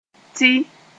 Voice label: "tɩ", Moore; that

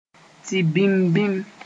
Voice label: "tɩ-bĩm-bĩm", Moore; vegetation

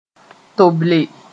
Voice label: "toble", moore

